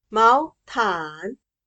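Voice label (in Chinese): "毛毯", Chinese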